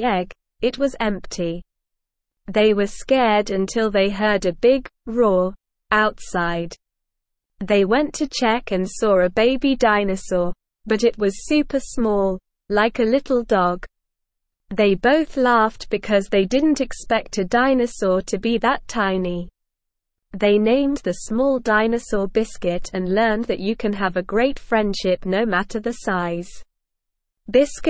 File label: ESL Short Stories for Kids Upper Intermediate SLOW Reading; The Dinosaur Egg